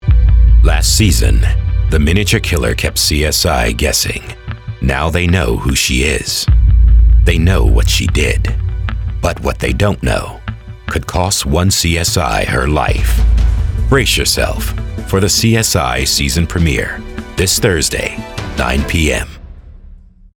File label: promo